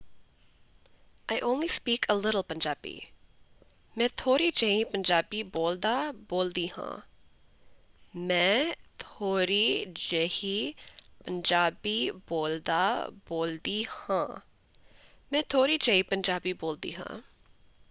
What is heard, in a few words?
Punjabi I only speak a little Punjabi